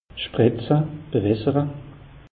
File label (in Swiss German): "spritze", alsace; meteo